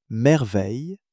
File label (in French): "merveille", French